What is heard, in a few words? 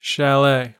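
"chalet", US English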